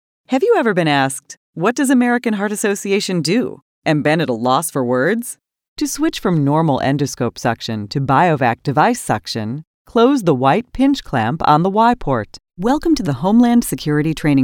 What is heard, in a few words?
ELEARNING